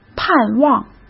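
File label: "盼望", Mandarin Chinese; hsk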